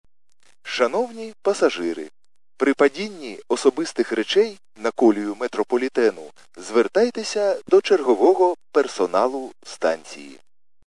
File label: khs st male, subway station